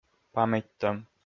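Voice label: "паметьтӧм", Komi, male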